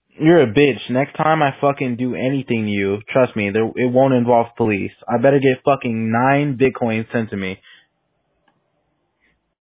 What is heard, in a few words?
swatting extortion voicemail